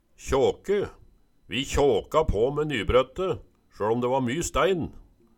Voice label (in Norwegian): kjåke, Numedalsmål